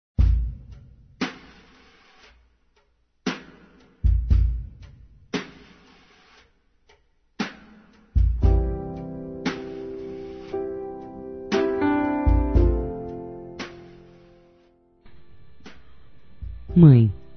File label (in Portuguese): Dia das Mães Distante Feminino; Telemensagens 2017